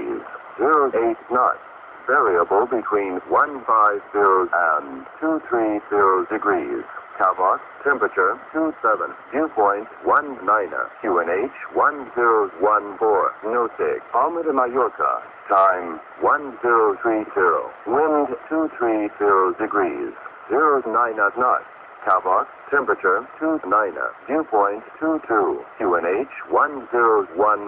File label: Volmet Barcelona